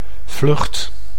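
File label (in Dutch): "vlucht", Dutch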